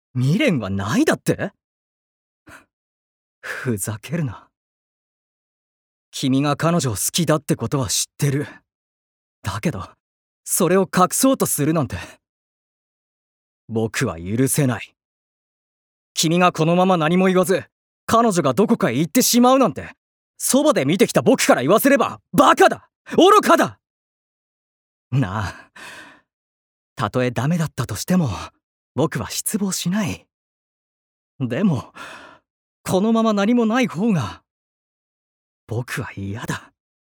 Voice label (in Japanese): 外画